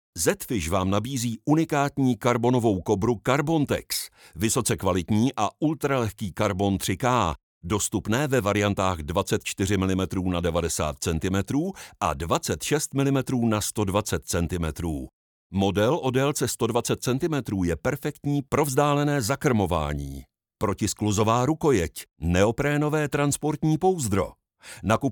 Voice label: 捷克语男磁性

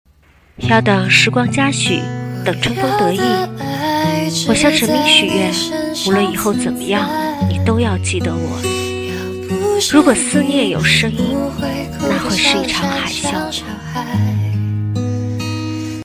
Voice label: 旁白; 要等时光嘉许

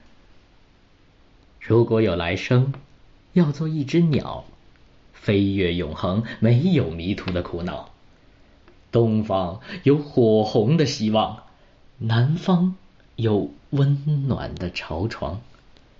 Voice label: 情感类

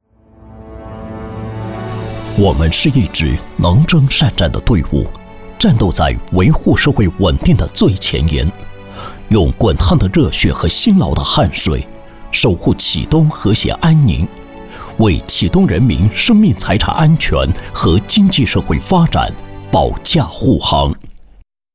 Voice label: 公安工作大气沉稳

